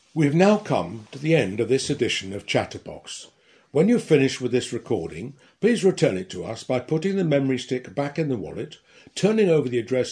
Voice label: Closing Announcement